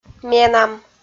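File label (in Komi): "менам", Komi, female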